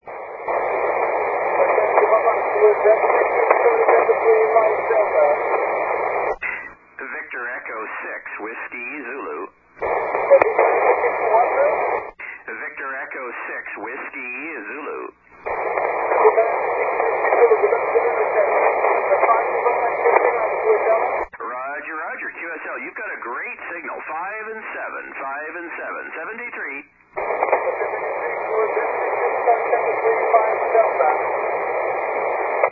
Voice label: 80m SSB; 80m DXCC Entities worked from 2002-2008